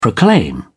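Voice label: "proclaim", English, British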